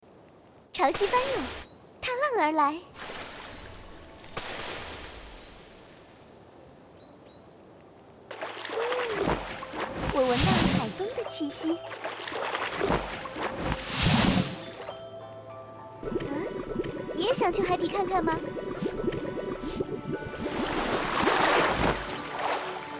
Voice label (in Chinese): 游戏, 年轻活泼海神女